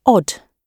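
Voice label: "odd", British English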